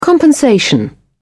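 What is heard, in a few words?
"compensation", British English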